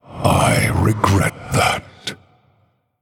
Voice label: Male Human Sorry